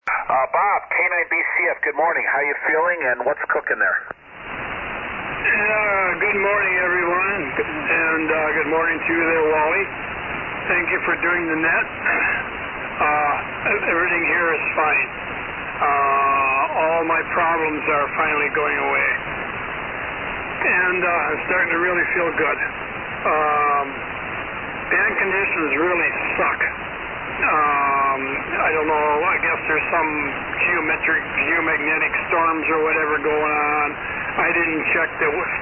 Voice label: Heathkit SB-102 D-104 Mic & SB-200 Amp; Greenleaf, Wi.